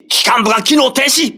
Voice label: voice message engine destroyed, crew ships japanese